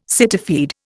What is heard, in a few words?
"cityfied", Indian